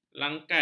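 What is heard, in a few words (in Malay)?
"langkan", Malay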